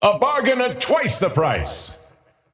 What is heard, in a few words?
scepter announce in game; dota